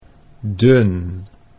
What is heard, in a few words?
"dun", Dutch